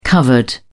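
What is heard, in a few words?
"covered", British English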